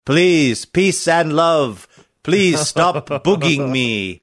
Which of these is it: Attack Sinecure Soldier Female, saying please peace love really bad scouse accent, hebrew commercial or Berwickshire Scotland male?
saying please peace love really bad scouse accent